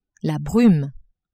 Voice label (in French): "brume", French